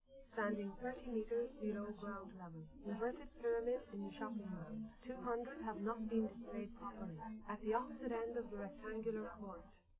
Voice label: female live rec; SiSEC10; underdetermined